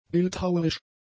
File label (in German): "bildhauerisch", German; adjectives